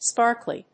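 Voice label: "sparkly", English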